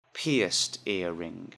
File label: "pierced earring", English; Jewelry and Cosmetics